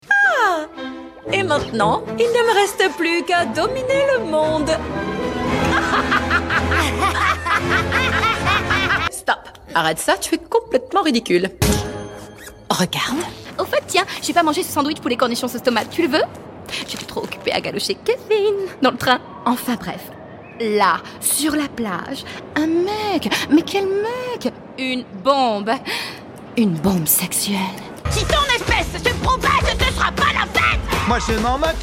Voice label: french voice character, French Female

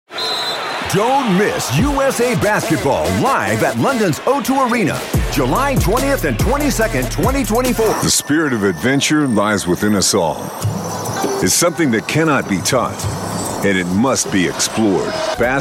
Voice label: commercial reads

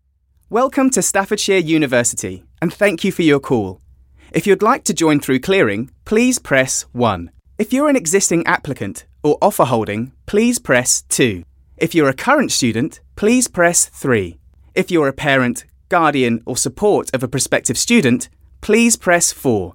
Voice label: telephone

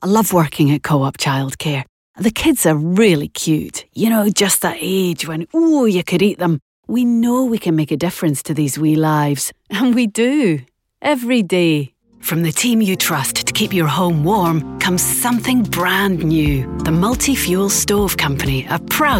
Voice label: scottish accent

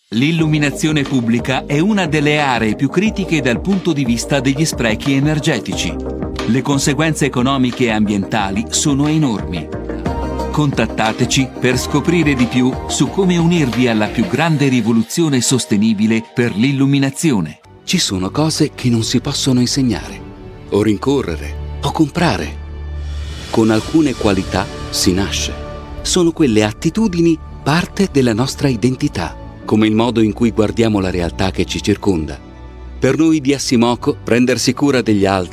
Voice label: corporate

